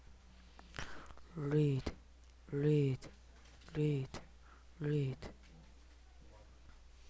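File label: "lead", spoken word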